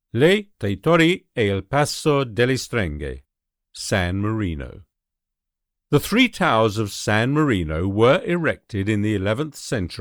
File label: Travel Guide